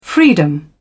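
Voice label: "freedom", British English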